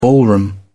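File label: "ballroom", British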